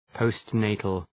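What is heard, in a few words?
"postnatal", English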